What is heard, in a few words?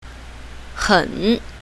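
"hen3", Chinese pinyin, south, female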